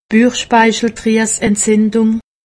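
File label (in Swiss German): "buchspeicheldrieseentzindung", Alsatian; medecine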